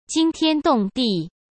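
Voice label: "惊天动地", Mandarin Chinese; chinese-flashcards; hsk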